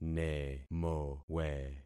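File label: "nemowe", concatenated syllables; Affixing experiment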